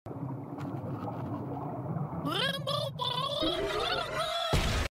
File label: Talking underwater